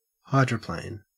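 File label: "hydroplane", Australian English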